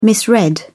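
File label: "misread", British English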